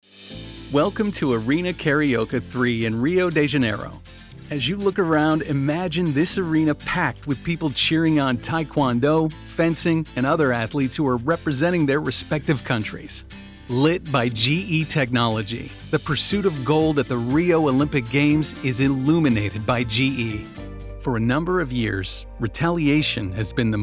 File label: Narration